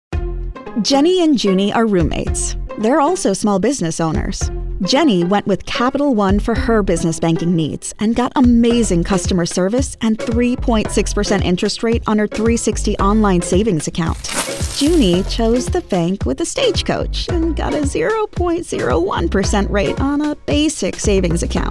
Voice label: Explainer